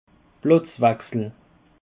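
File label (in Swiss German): "platzwechsel", Alsatian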